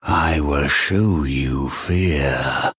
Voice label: Male Blood Elf Play